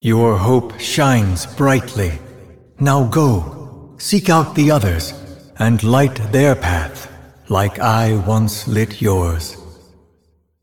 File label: Male Celestial Bark